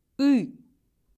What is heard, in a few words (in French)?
lettre y, russe